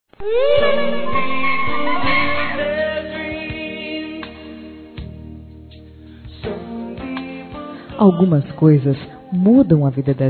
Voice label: Parabéns Pela Maternidade Feminino; Telemensagens; Felicitações; Telemensagens 2017

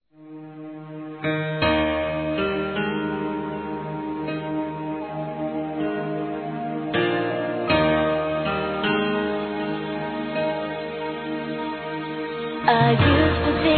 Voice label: ANIV. CUNHADA M

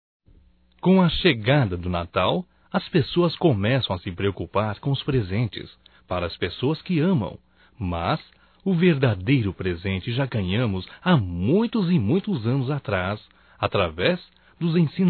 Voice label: Romântico Masculino; Natal e ano novo; Telemensagens 2017

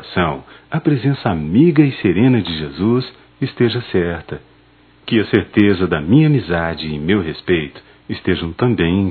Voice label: Natal para mãe - m; masc; Telemensagens 2017; Natal & Ano Novo

